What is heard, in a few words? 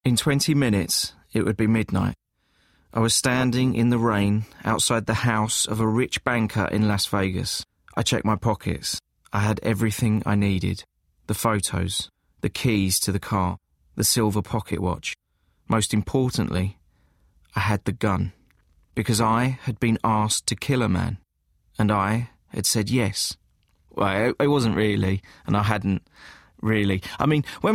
Narration